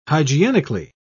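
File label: "hygienically", British English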